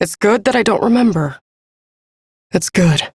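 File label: Ambient Female Sedentary, Dialog; Duviri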